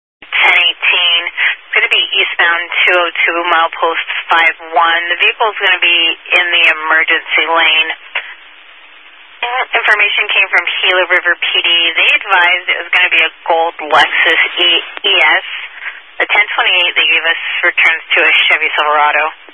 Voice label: Highway Patrol, Phoenix Metro East Area, scanner